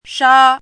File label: "shā", hanzi